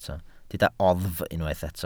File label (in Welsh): "oddf" Welsh